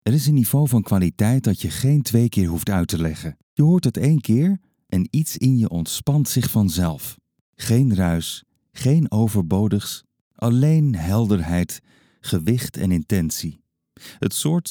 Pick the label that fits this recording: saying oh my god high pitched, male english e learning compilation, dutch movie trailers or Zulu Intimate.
dutch movie trailers